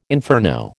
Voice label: "inferno", American